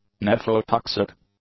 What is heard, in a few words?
"nephrotoxic", english; adjectives